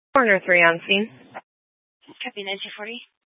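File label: Sheriff Dispatch, Scanner audio recording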